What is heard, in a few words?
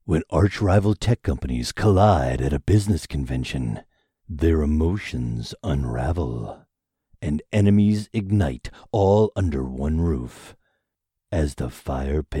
In a World style announcer